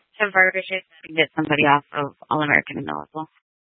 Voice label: Tempe PD, scanner; Rgnl Wireless Co-op (RWC)